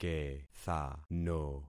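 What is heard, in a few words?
"kethano", concatenated syllables; Affixing experiment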